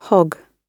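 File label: "hogg" British English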